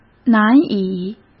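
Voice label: "难以", Mandarin Chinese; chinese flashcards; hsk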